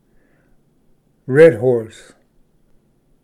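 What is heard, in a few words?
"redhorse sucker", English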